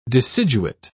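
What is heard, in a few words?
"deciduate", English, British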